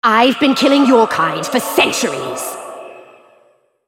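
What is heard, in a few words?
taunt, English; Lissandra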